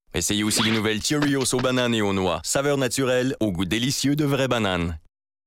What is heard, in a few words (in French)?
finale de pub; cheerios